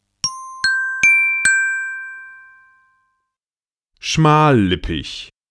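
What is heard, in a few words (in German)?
"schmallippig", German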